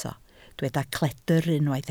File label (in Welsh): "cledr", Welsh